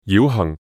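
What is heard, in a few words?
"绕行", Cantonese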